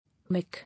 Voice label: "Wick", English